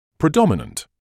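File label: "predominant", British English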